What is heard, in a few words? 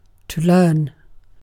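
"learn", British English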